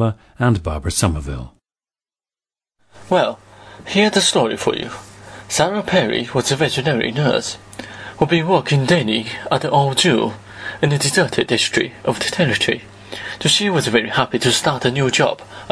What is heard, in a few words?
fujian, dialects